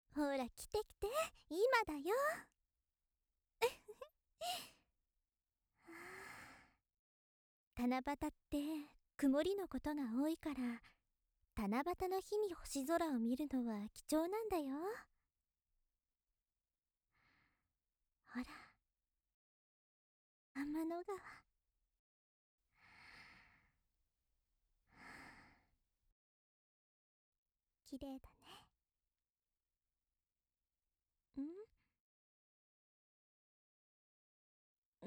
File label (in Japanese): 優しいお姉さん 七夕だね